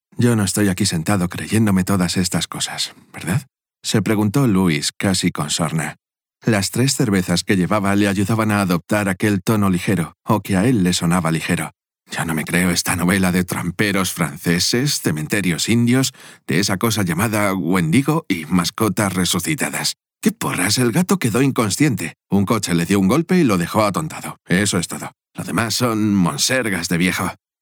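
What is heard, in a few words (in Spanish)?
generico España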